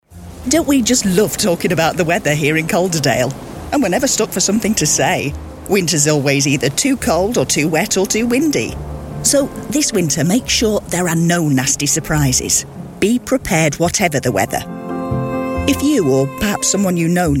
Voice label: northern accent warm chatty